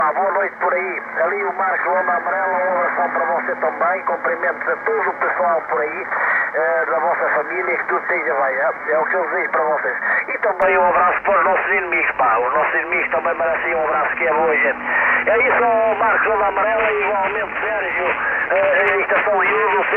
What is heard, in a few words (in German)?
CB Funk aus Portugal, 27335khz, LSB